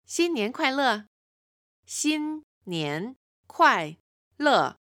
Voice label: chinese happy new years